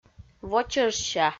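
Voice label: "вочӧжся", Komi, female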